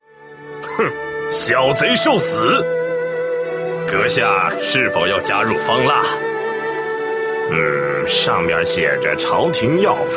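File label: male middle-aged and elderly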